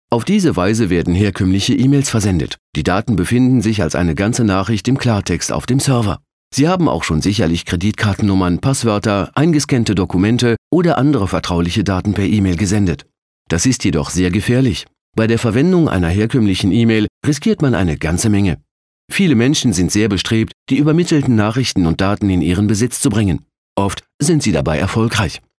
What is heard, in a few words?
german